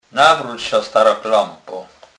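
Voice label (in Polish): "klempa", Kurpie dialect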